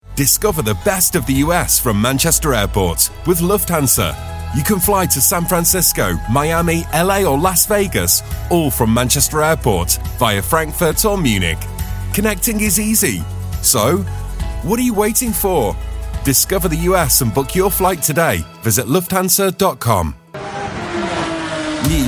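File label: commercial, Gravitas Warm Trustworthy Voice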